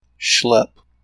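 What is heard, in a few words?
"schlep", US English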